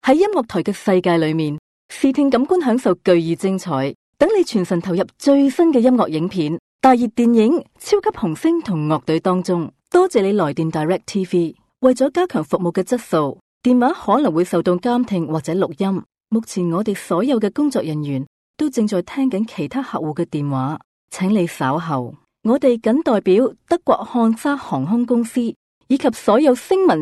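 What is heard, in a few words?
Chinese Cantonese; GoLocalise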